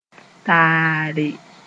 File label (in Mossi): "taare", moore